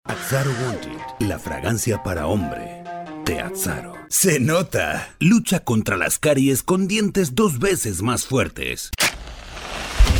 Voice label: Spanish Latam Commercial